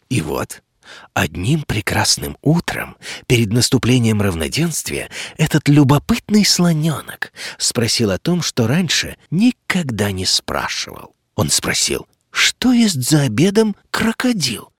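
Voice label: Russian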